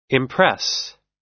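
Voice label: "impress", US English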